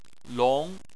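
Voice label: "long", Chinese, second tone; Chinese Surnames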